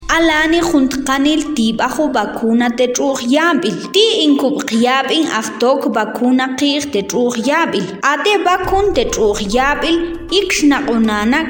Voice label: SPOT MAM